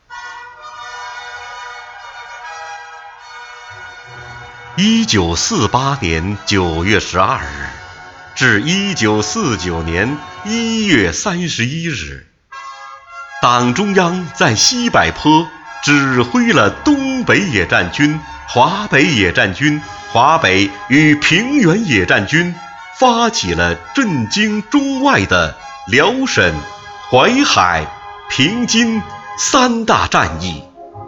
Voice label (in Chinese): 话剧旁白; 三大战役